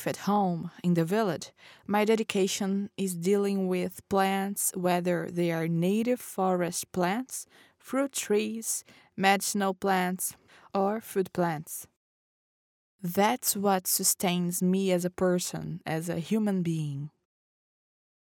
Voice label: dubbing english free paced indigenous conversational brazilian accent female